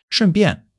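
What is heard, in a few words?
"shun4 bian4" Chinese; 基础汉语40课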